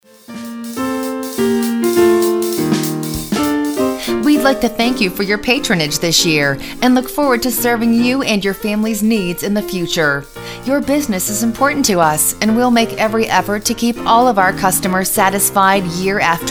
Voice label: Holiday, on hold